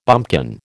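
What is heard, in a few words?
"bumpkin", American English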